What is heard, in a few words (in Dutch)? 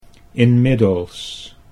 "inmiddels", Dutch